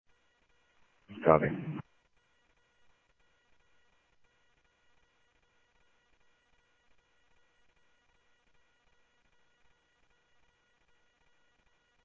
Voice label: Mesa Simulcast, MESA PD, scanner audio; MesaPoliceDep